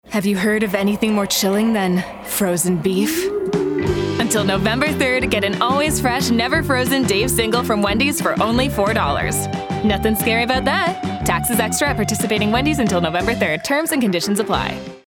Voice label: Radio Mix, Radio Commercials, female voice; 15 Sec; Wendy's; Nothing Spookier